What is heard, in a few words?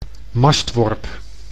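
"mastworp", Dutch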